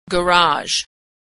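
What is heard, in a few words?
"garage", American English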